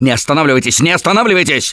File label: emphatic go quiet, russian; Gambler